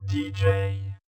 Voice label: I am Your DJ (robot), Yamaha DJX 2B

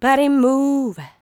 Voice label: "Body Move", vocal phrases; Ultimate Vocals

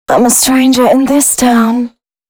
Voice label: female spoken hooks, dance ultra voice

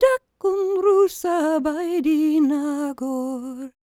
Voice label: WELSH F, World Voices; ILIO; Vocal Planet; WELSH FEM

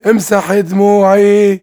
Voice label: MAN VOICE, Arabian Traditions; USB Soundscan vol.43; AKAI

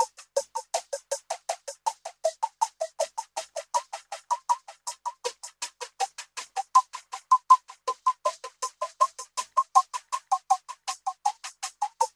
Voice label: VOCOD, VOCODA LOOPS; Zero G; Malice In Wonderland

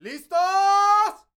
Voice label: MEXICO VOICE, MEXICAN; ILIO; Vocal Planet VOL-5

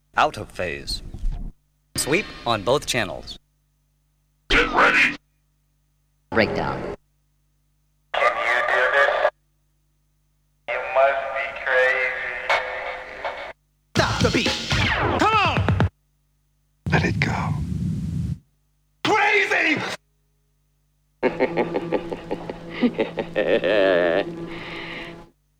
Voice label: Vocal Drops; Kniteforce; 1000 Samples Volume 1

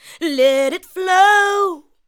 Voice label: ADLIBS 90; Ueberschall; Jam Box

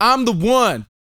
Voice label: BOAST F; Vocal Planet; R&B Dance

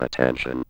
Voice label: ROBO VOX; Masterbits - Tekkno Trax

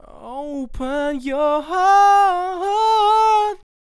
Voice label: "Open Your Heart", vocal phrases; Ultimate Vocals

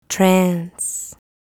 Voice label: "Trance", vocal phrases; Ultimate Vocals

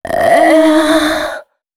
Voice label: female c#133, adlips; Mutekki Media; Dance Ultra Voice VOL-1

